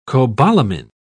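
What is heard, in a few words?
"cobalamin", British English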